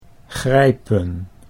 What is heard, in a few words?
"grijpen", Dutch